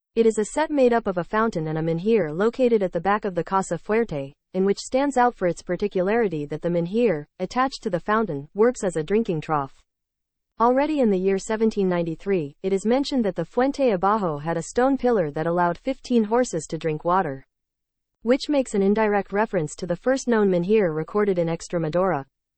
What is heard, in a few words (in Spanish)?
audio ingles; FUENTE DE ABAJO Y MENHIR